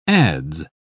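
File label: "adz", British English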